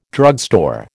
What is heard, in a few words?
"drugstore", American